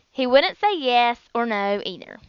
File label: southern